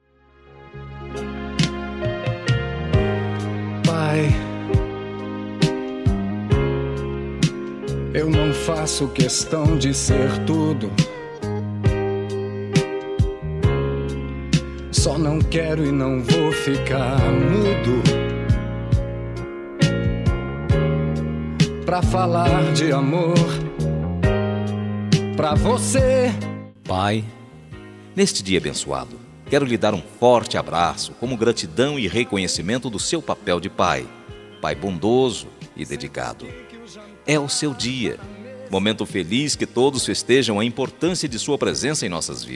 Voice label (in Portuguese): ANIV PAI MASC; aniver de pai